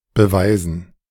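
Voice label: "beweisen", German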